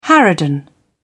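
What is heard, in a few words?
"harridan", British English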